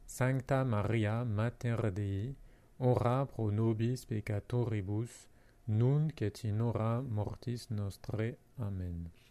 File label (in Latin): ave oratio recitata